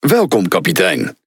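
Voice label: Speech Tutorial, Dutch; World Of Warships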